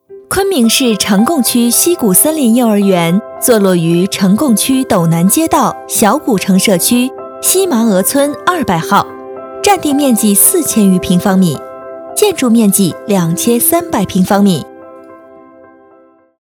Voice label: 专题亲切

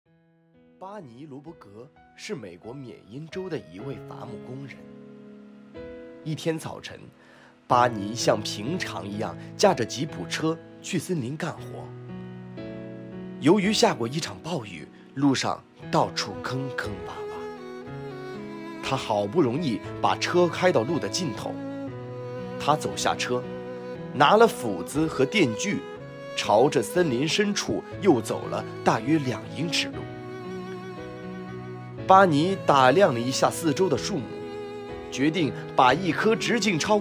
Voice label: 小说配音

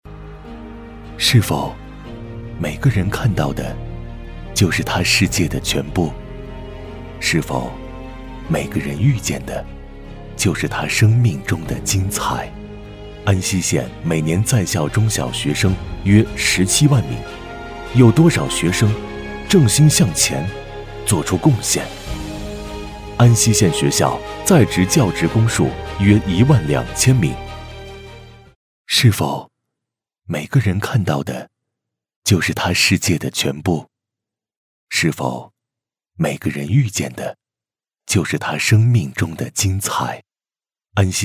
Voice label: 旁白企业旁白

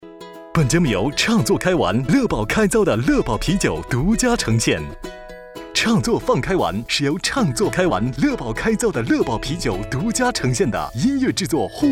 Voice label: 轻松欢快 广告

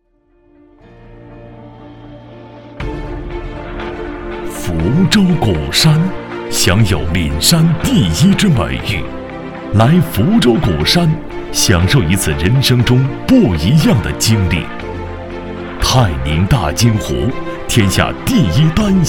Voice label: 宣传片稳重大气; 福州

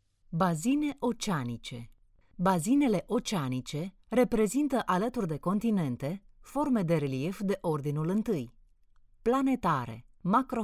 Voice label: 罗马尼亚语女

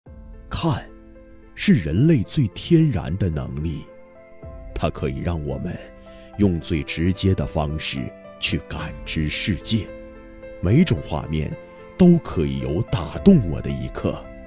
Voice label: 纪录片; 看见视界

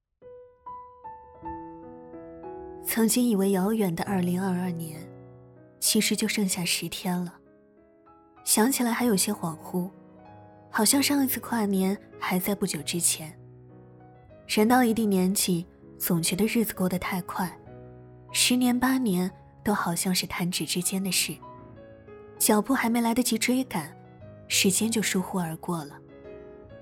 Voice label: 治愈

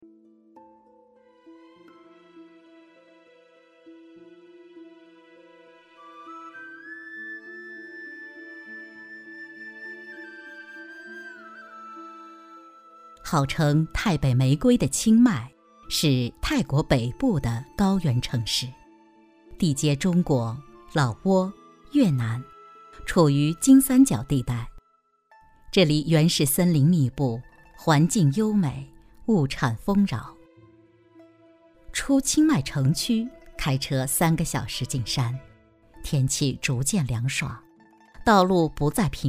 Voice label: 纪录片; 千年紫芽

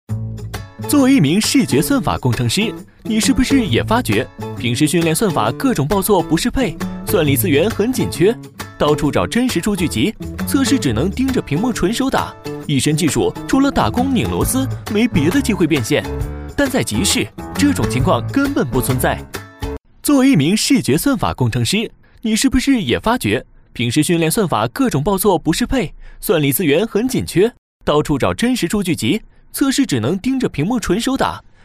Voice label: 男极市宣传

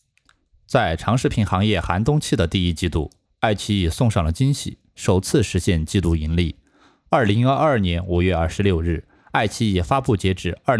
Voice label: 新闻稿件

